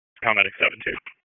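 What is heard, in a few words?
EMS DISP, radio; wake; p25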